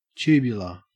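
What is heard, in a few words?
"tubular", Australian English; pronunciations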